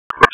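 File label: Sheriff's Office Dispatch 1, p25; wake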